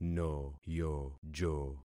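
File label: "noyojo", concatenated syllables; Affixing experiment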